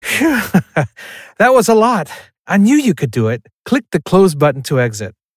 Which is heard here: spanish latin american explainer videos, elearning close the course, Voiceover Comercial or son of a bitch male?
elearning close the course